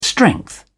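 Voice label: "strength", English, British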